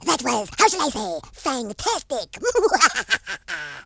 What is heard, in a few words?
amazing, Horror